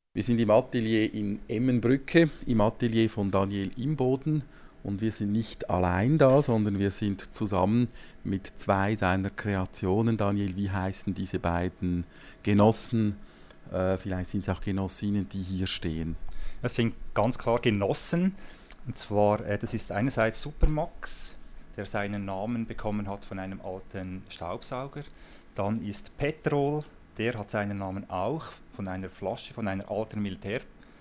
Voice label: interview; digitalbrainstorming